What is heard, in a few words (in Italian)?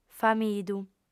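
"famidu", lingua sarda, female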